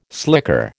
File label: "slicker", American